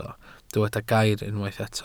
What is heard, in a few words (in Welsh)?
"gair", Welsh